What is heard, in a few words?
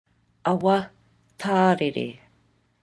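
"awa tarere", Maori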